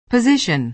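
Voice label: "position", American English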